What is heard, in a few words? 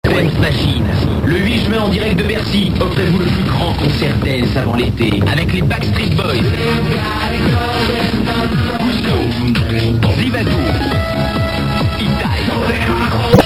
promo